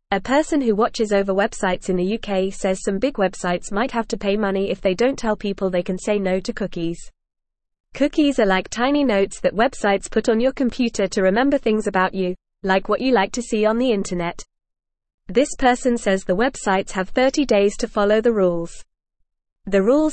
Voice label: Beginner FAST Reading, English; English Newsroom

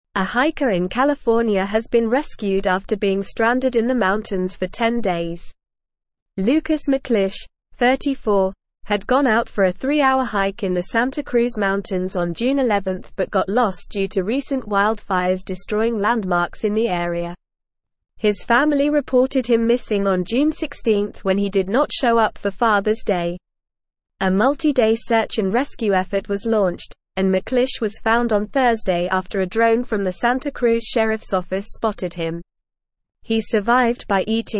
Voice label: English NORMAL Reading; Hiker Rescued After 10 Days Stranded in Mountains

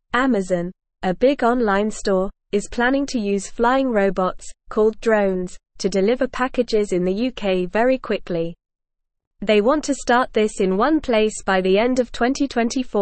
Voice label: English Lower Intermediate NORMAL Reading; Amazon to Use Flying Robots for Fast UK Deliverie; English Newsroom

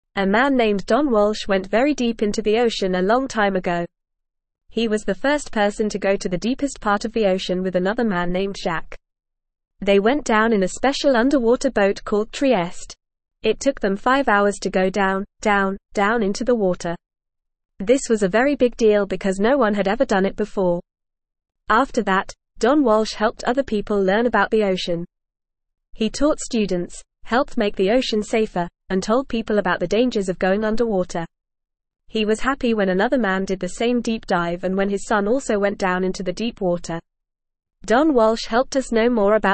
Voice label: English Newsroom Beginner FAST Reading; Deep Dive Don Walsh Explores the Oceans Depths

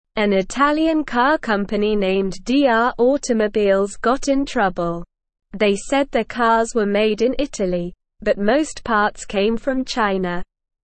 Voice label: English SLOW Reading; Italian Car Company Fined for Misleading Customers

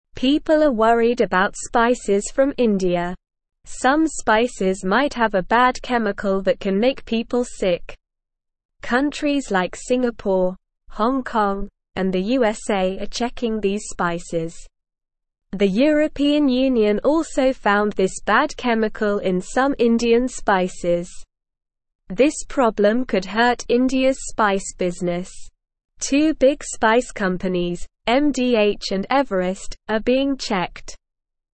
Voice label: English SLOW Reading; Worries about Indian spices hurting peoples health

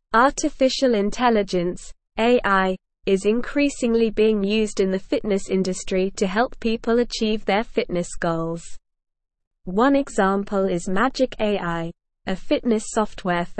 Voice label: English Newsroom Advanced SLOW Reading; AI in Fitness Personalized Training or Human Connection

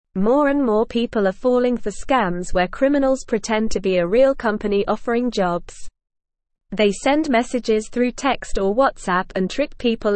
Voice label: English Upper Intermediate NORMAL Reading; English Newsroom; Recruitment scam losses soar as victims increase

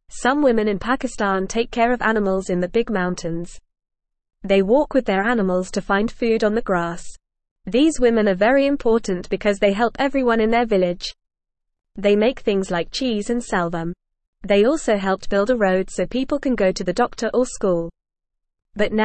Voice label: English Newsroom Beginner FAST Reading; Women in Pakistan Care for Animals in Mountains

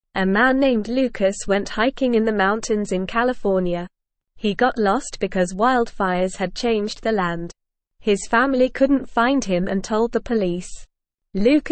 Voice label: English Beginner NORMAL Reading; Lost Hiker Survives in Mountains with Help

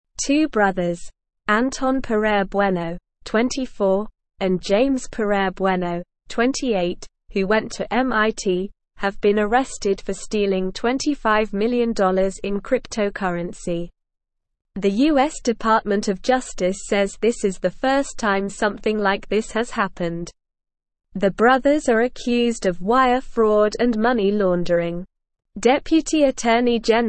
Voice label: English Upper Intermediate SLOW Reading; MIT Brothers Arrested for 25 Million Cryptocurrency

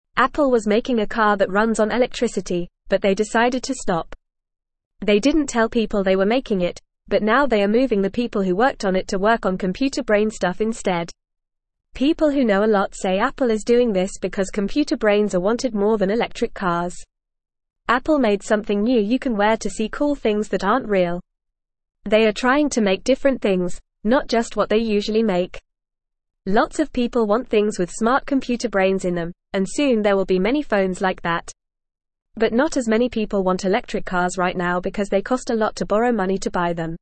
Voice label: English Beginner FAST Reading; English Newsroom; Apple Stops Making Electric Cars Focuses on Computer Brains

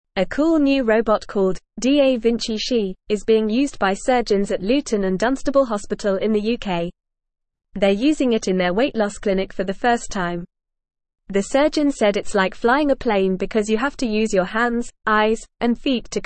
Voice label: English Upper Intermediate FAST Reading; English Newsroom; New Robotic System Speeds Up Weight Loss Surgeries

